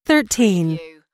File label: US female phone prompt; lynne publishing